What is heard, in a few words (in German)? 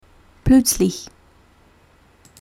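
"plötzlich", German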